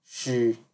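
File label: "she", Nigerian Pidgin, male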